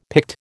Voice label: "Pict", American

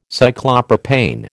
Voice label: "Cyclopropane", American

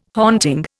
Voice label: "haunting", Indian

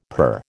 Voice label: "purr", American

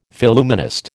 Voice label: "Phillumenist", American

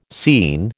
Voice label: "scene", American

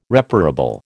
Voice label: "reparable", American

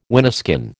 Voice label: "wineskin", American

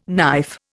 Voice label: "knife", Indian

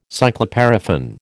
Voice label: "cycloparaffin", American

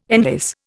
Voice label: "enlace", Indian

